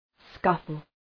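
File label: "scuffle" English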